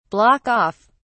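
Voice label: "block off", British English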